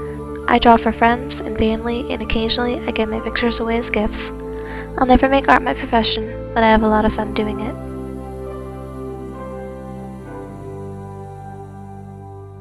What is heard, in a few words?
just me talking with music